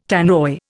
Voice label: "Tannoy", Indian